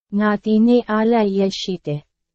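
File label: Burmese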